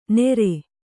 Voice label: "ನೆರೆ", Kannada